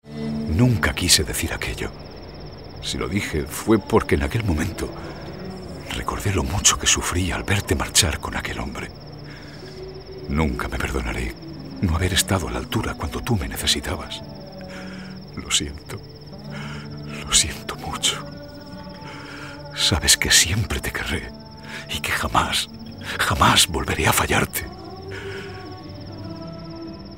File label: male spanish movie comercial; ideasonora